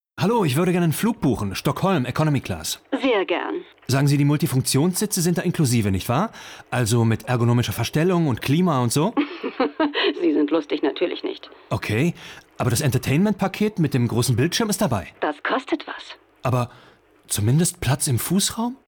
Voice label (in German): werbung studio funk; vw